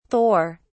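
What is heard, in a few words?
"thor", British English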